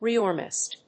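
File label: "rearmost", English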